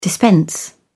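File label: "dispense", English, British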